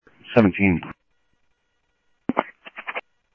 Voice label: Sheriff scanner audio; South Scottsdale; MCSO - EAST; Sheriff-EastCnty